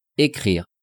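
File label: "ecrire", French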